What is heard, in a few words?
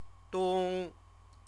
"dong", Chinese